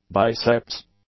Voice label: "biceps", English